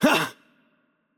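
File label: Attack Light, Brazilian Portuguese; VO; bloom minigame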